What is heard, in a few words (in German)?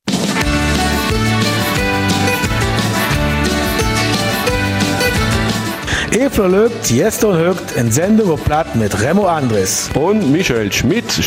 Eifeler Mundart; Maya-Gemeinde Büllingen